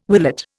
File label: "willet", Indian